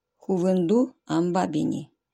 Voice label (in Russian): ОРОЧСКИЙ В Тайге живет тигр